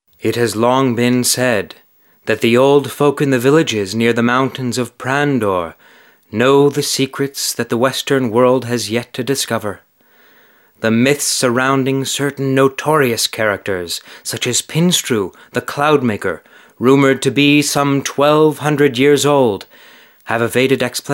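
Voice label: male English documentary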